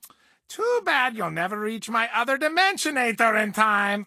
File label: English Voice